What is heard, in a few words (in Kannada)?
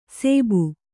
"ಸೇಬು", kannada